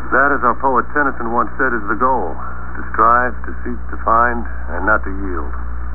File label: Poem